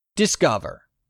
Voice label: "DISCOVER", english; 3000 words